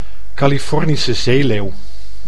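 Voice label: "Californische zeeleeuw", Dutch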